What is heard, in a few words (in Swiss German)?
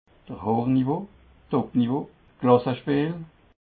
"hochnivo", alsace